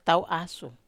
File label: "tau asu", Wamesa